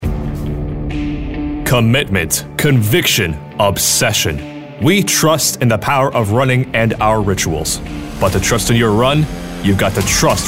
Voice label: authoritative